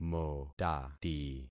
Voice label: "motati", concat syllables; Affixing experiment